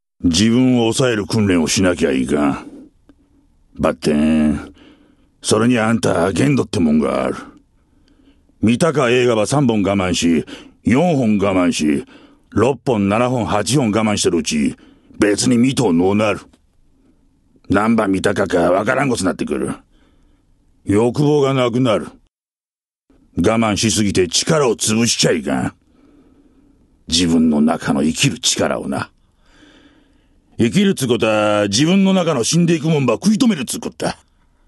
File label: fukuokaben, male voice